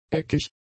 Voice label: "eckig", german; adjectives

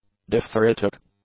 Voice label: "diphtheritic", english; adjectives

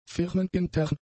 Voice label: "firmenintern", German; adjectives

